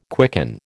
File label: "quicken", American